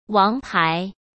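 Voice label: "王牌", Mandarin Chinese; chinese flashcards; hsk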